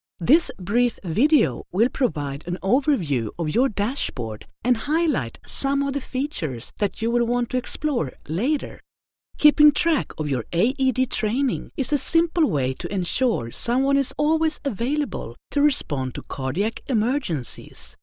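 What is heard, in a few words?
Swedish English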